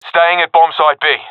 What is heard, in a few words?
guarding bombsite b, professional epic; vo; Counter Strike Model Pack; CS:GO